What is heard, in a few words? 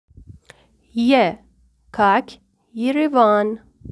russian